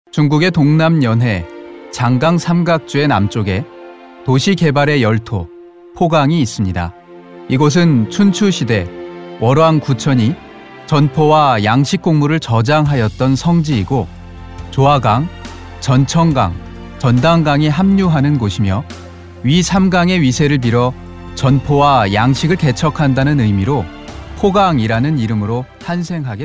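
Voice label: Korean, male; Professionelle Sprecher und Sprecherinnen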